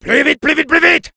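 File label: Soldier move up, French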